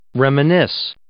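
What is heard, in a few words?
"reminisce", English; verb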